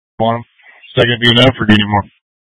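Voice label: Incident Tactical 1, Scanner audio recording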